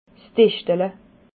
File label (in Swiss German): "dischdele", alsace